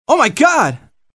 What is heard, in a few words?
male "oh my god"; Survivor The Interactive Game; Player